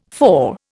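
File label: "for", Indian English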